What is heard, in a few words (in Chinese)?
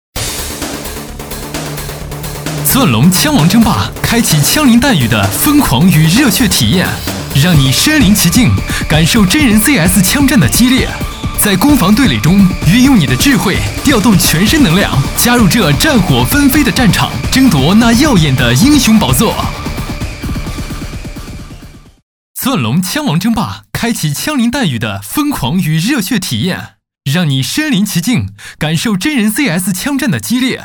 男 宣传广告 宣传介绍; 钻龙枪王争霸赛